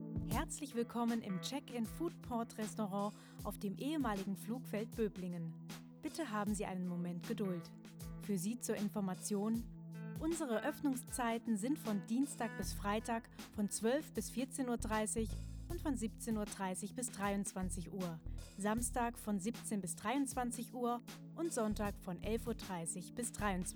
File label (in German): Sprecherin Warteschleife